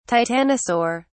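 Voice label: "titanosaur", British English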